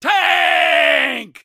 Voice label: soldier tank warcry; video games; source engine; tf; taunts